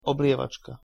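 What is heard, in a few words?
"oblievačka", Slovak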